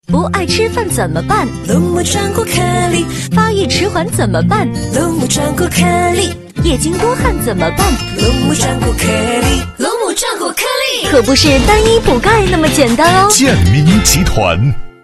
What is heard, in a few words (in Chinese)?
女 广告; 龙牡壮骨颗粒